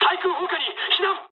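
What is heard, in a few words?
damaged aa mood med, speech japanese; War Thunder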